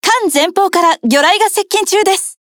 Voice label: Speech Alarms Torpedo Ahead; Hood; Azur Lane